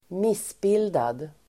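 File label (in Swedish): "missbildad", Swedish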